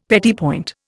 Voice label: "petit point", Indian; Dictionary

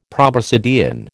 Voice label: "proboscidean", American

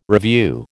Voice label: "revue", American

